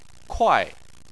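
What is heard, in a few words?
"kuai" fourth tone, Chinese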